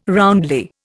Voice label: "roundly", Indian

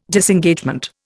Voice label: "Disengagement", Indian

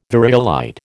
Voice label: "variolite", American

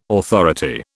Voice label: "authority", British

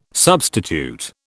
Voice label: "substitute", British

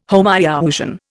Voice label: "Homoiousian", Indian

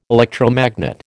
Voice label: "electromagnet", American

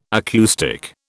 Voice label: "acoustic", British

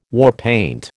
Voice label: "warpaint", American

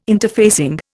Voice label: "interfacing", Indian

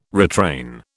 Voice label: "retrain", British

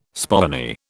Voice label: "spoony", British

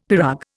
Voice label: "pirogue", Indian; Dictionary

